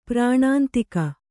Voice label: "ಪರನಿಂದಕ", Kannada